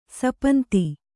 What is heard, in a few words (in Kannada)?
"ಶಾಪಾಂತ", Kannada